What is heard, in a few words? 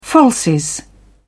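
"falsies", English, British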